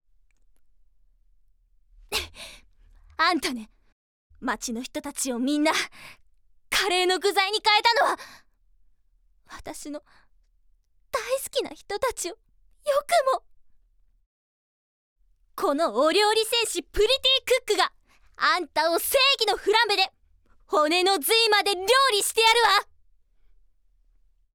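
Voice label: magical girl, Japanese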